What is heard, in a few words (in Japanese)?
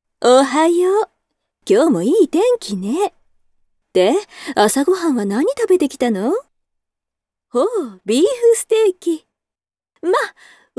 oneesan